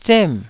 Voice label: "zem4", taiwanese syllables